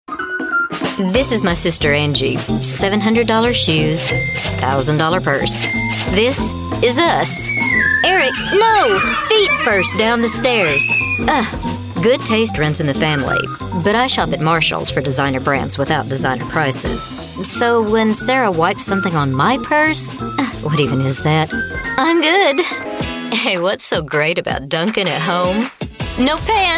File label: Commercial